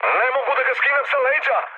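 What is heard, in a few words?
Serbian aircraft damaged mood med, speech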